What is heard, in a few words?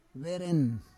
"veren", Roon